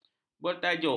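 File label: "bertajuk", Malay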